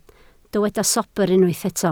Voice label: "sobr", Welsh

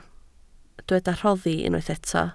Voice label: "rhoddi", Welsh

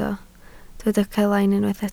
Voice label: "celain", Welsh

